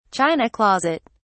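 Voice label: "china closet", English, British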